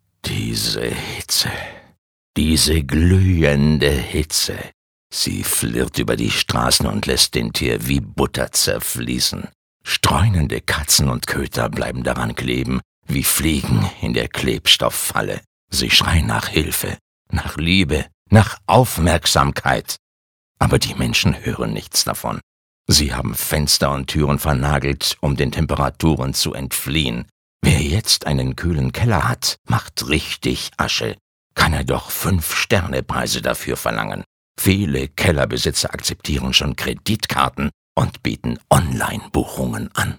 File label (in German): Schauspiel Rau